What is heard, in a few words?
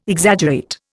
"Exaggerate", Indian